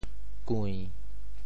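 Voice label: "kui~7", Teochew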